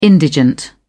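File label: "indigent", British English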